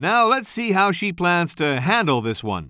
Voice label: commentator